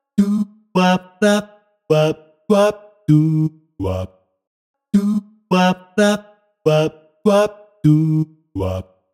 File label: test voice message